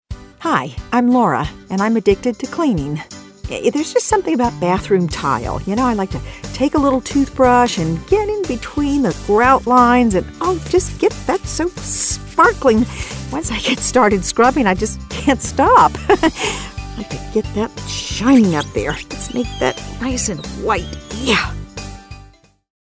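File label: female household cleaner, voice-over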